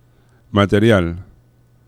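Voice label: "material", Spanish; laboratori fonetica; paraules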